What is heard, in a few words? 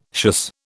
"schuss", British